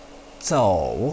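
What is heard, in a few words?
"zou3", Chinese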